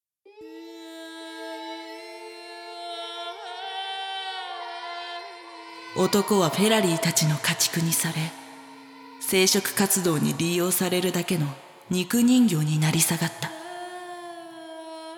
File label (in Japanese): ナレーション, ASMR; 淫妖精の森